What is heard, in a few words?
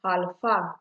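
"alfa", Nigerian Pidgin, female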